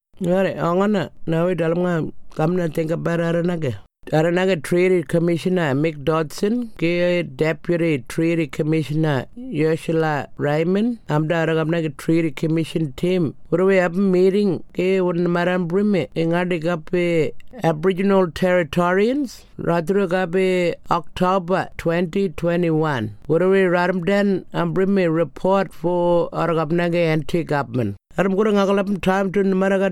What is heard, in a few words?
Tiwi